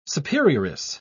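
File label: "superioress", English, British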